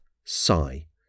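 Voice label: "sigh", English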